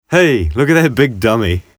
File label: Trash Can, BOY